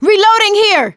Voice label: reload intense, survivor voice; producer

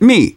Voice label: tank in train yard, manager, survivor, voice; Left 4 Dead 2; left4dead2 dlc2

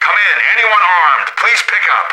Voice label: radio initial broadcast

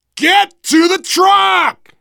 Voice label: arrive truck, survivor voice, biker; Left 4 Dead 2; left4dead2 dlc3

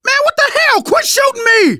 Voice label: friendly fire, manager, survivor, voice

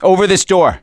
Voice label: misc directional, voice; gambler

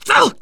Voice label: horde attack, teen girl; player; survivor; voice

